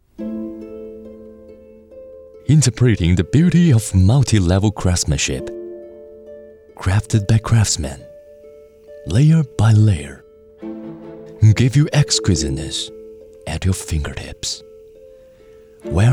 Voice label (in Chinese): 男英 外语 国内英语 神秘品质; TVC神秘品质